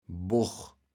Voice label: "Bogg", kaevels platt

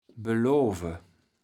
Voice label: "beloave", kaevels platt

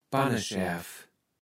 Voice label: "Panneschärv", Platt

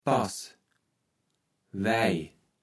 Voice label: "Paß Weij", Platt

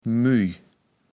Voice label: "müj", kaevels platt

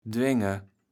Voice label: "dwenge", kaevels platt

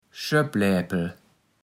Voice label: "Schöppläpel", platt

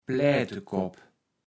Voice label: "Pläätekopp", kaevels platt

